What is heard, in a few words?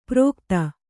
"ಪ್ರೋಕ್ತ", Kannada